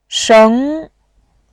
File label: "sheng2", voice